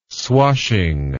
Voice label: "swashing", English word

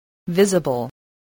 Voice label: "visible", English

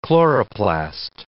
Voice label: "chloroplast", English

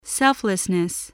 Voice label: "selflessness", english spelling

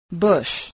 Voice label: "bush", spelling

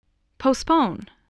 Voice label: "postpone", English

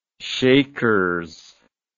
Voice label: "shakers", English

